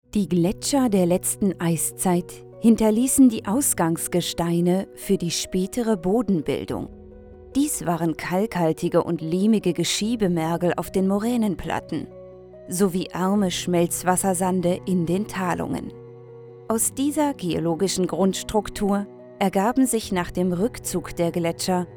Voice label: Dokumentation

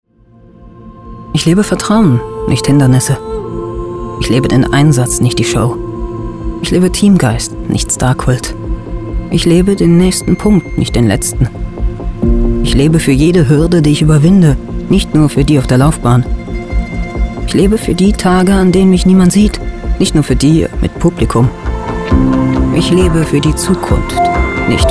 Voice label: Werbung Sport; capital a

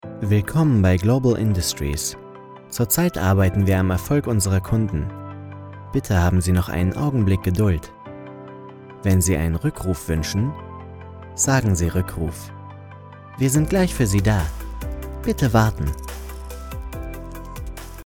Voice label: Telefonansage Industrie Seriös

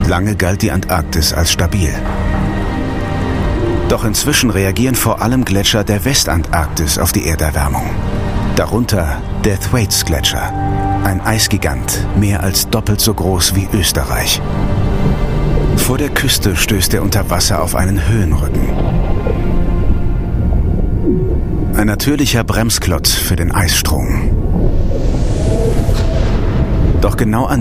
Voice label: Doku; Das Gedaechtnis der Ozeane

